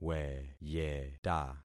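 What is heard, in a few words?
"weyeta", concatenated syllables; Affixing experiment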